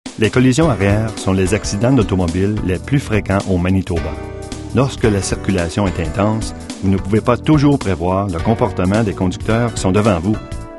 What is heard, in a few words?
French